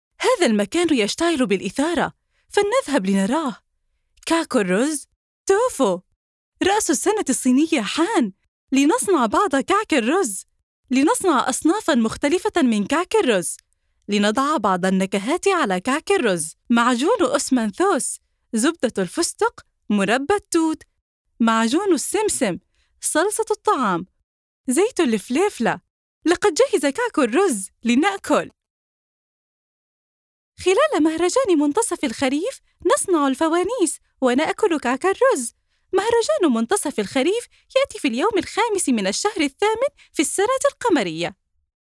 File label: Arabic animation